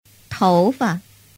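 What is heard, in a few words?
"toufa", Chinese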